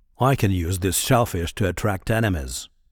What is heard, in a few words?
Voice Monolog